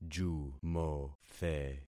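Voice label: "jumothe", concatenated syllables; Affixing experiment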